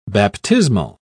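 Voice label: "baptismal", British English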